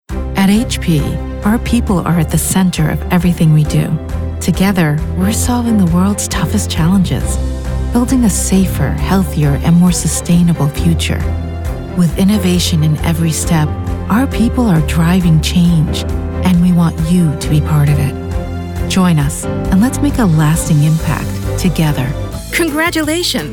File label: corporate narration